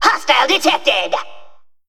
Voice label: Male Mech Attack

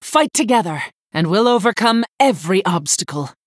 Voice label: Female Human Bark; Balinda Stonehearth

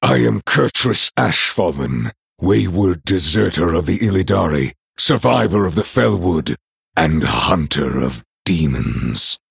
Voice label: Male Night Elf; Hero; Kurtrus; Mission1 Exchange D

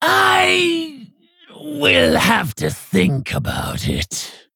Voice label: Female Orc Loss; Hagatha

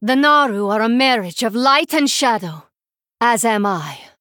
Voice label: Female Draenei; Scabbs

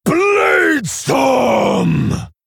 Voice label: Male Orc Trigger

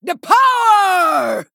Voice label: Female Troll Play

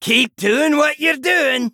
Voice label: Male Dwarf Ahead

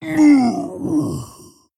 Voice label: Male Tauren Death

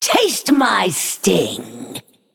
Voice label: Female Naga Attack

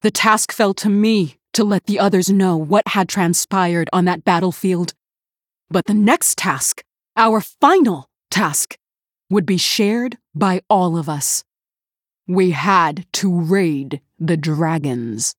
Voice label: Female Human Boss Reveal; Cariel

